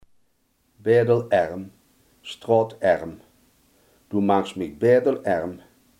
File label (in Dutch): "straatarm", Limburgs